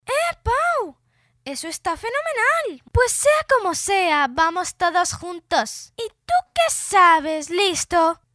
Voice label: nina locutora, Spanish child voiceover; young voice over; locuora nina, voz infantil, child voice over

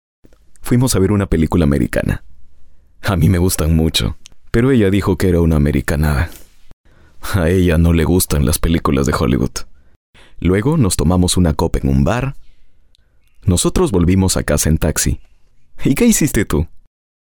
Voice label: locutor peruano peruvian voiceover

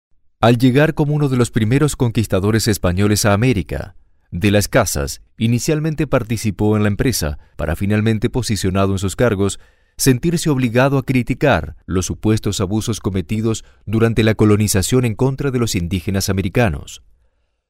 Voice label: locutor espanol neutro spanish american voiceover; locutor latino

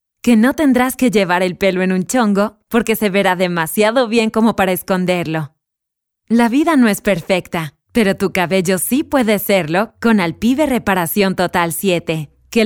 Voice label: locutora mexico mexican voiceover

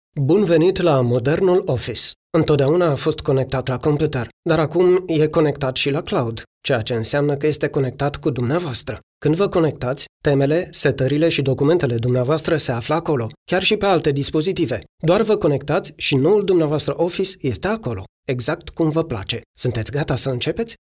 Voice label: locutor rumano romanian voiceover

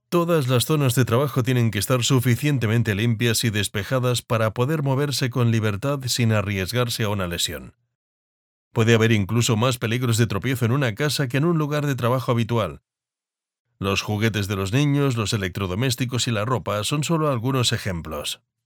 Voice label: locutor spanish voiceover

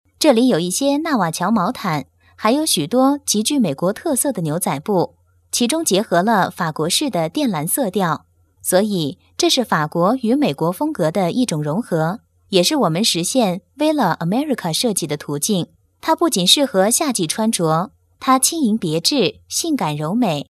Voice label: Chinese voiceover female locutora china mandarin

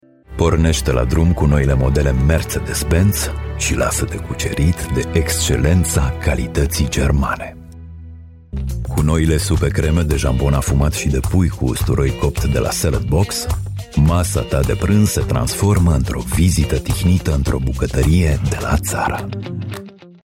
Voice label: locutor rumano romanian voiceover

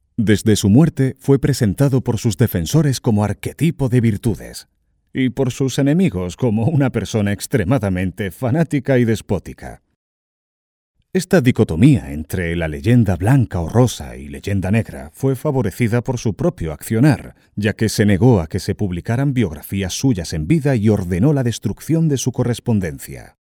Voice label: locutor spanish voiceover; Spanish cartoon dubbing voice over agency

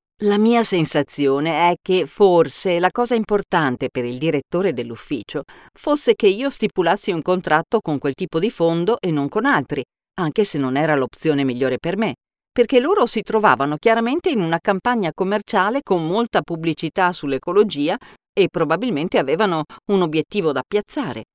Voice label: locutora italiana, Italian voiceover; locutora de Italia; locutores italianos

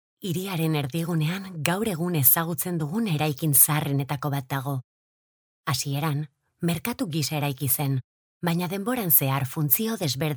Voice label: locutora euskera basque voiceover

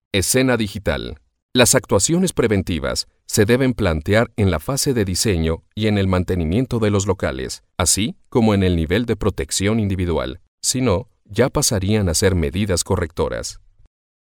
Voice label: locutor mexicano mexican voiceover

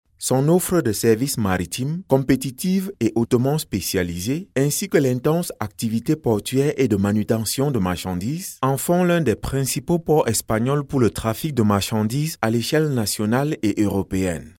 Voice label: locutor francés Camerún Cameroon French voiceover; locutores franceses africanos

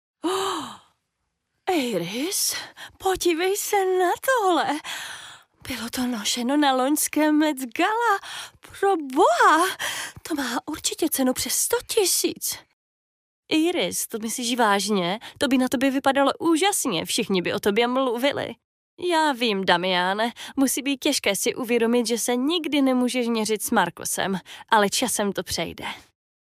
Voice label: locutor checo czech voiceover

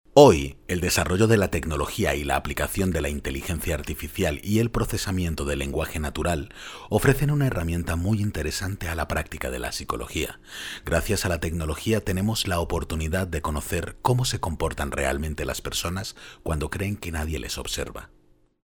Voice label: locutor voiceover

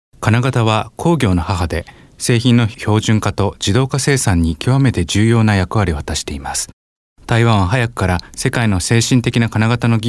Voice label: japanese male voiceover; locutor japonés

